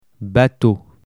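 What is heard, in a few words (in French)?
"bateau", French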